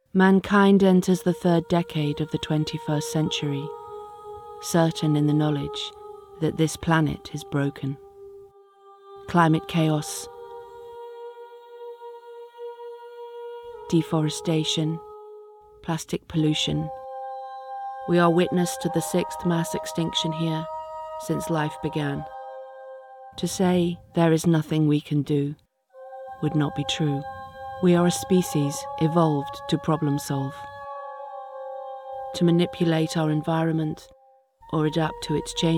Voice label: Documentary; The Bough Breaks

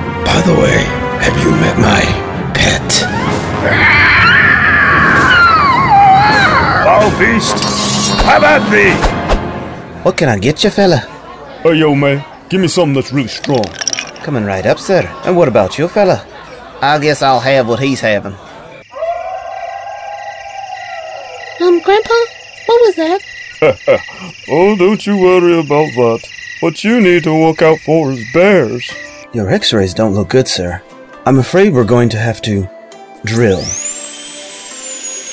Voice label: Character

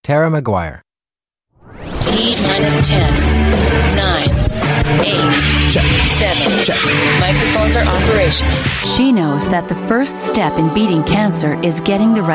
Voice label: MC & Voiceover

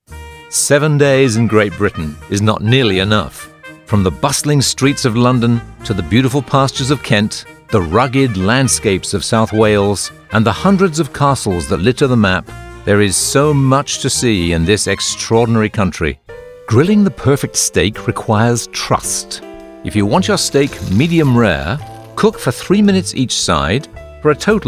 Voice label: Narration

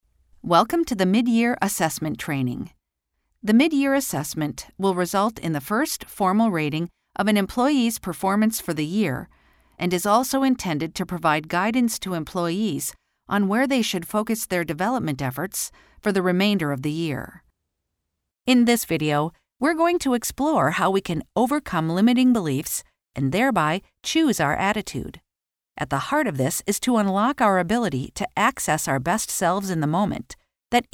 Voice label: Elearning

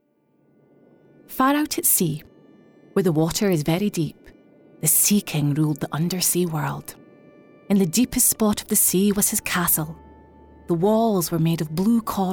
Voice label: Audiobook Sample

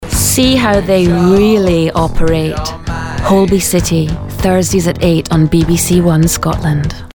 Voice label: Promo; Holby

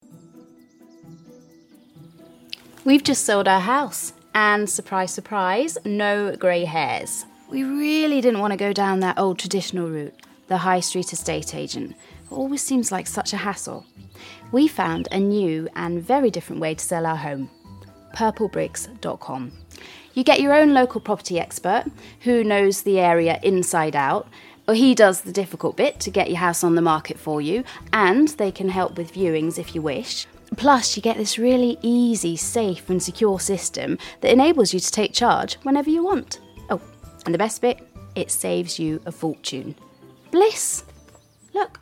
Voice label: Commercial English RP; Purple Bricks

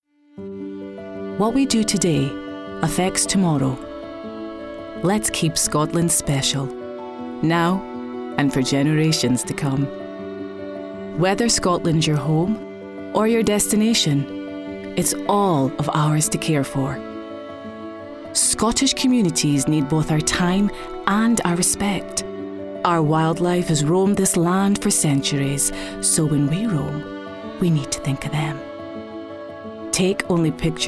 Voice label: Ad Campaign; Explore Scotland

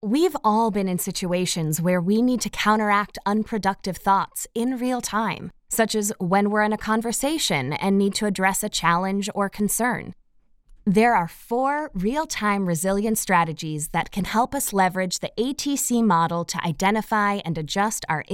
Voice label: E-learning; resilience

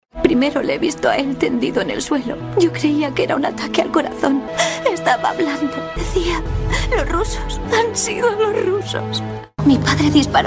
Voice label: dubbing young

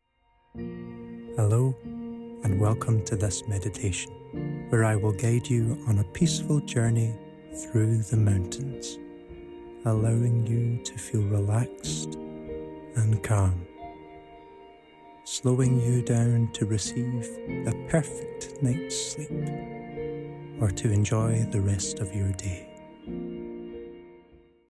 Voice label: Meditation App

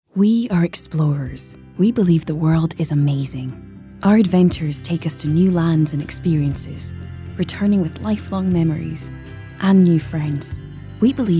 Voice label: Advert; Explore

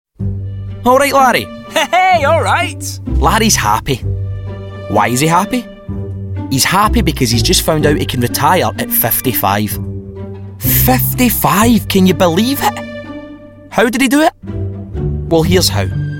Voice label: Corporate Commercial Wealth Management; Walker

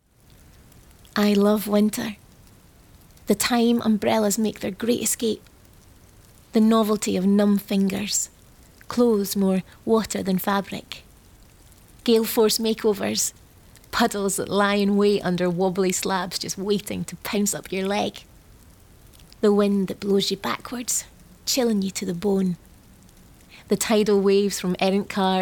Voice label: Commercial Soup; Heinz

